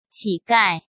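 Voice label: "乞丐", Mandarin Chinese; chinese-flashcards; hsk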